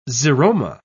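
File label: "xeroma", British English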